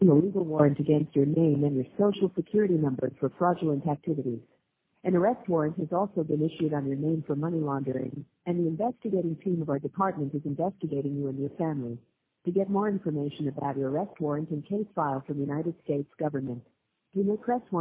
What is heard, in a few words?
voicemail